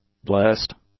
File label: "blessed", English; adjectives